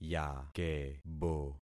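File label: "yakebo", concatenated syllables; Affixing experiment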